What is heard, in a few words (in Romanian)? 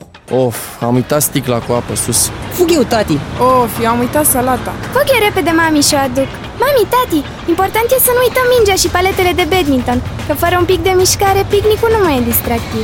Spot radio; VIATA; Comunitate sanatoasa